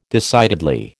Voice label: "decidedly", American